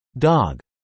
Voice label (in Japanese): "dog", アメリカ英語